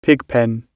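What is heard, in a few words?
"pigpen" British English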